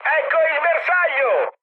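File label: Italian near waypoint mood high, speech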